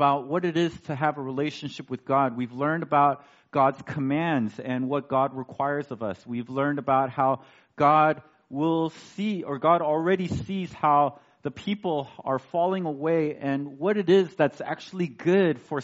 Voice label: Sermon; When You Return; the lord who saves